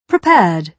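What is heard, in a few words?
"prepared", British English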